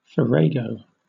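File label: "farrago", British English; pronunciations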